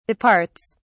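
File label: "departs", British English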